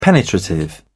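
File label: "penetrative", English, British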